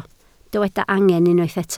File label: "angen", Welsh